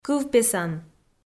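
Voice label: "кывпесан" Komi, female; kpv audio dict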